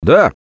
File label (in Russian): Yes, left4dead2 russian, Survivor Voice; Gambler